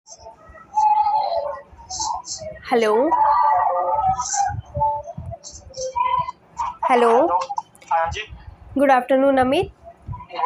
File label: call recording